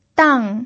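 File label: "dang" fourth tone, Mandarin Chinese pinyin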